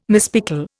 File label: "mispickel", Indian